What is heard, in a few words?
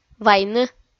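"вайны", Komi-Zyrian, female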